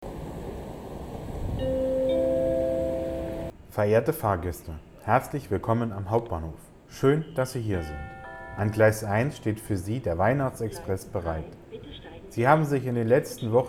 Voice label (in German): horspiel weihnachten